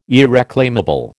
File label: "irreclaimable", American